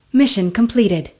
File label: speech mission completed; ingress